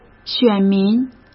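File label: "选民", Mandarin Chinese; chinese flashcards; hsk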